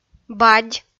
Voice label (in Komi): "бадь" kpv f